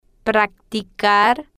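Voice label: "practicar", Spanish, female, slow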